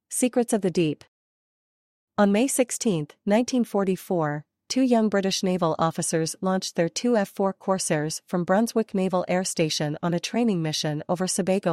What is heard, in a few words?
voiceover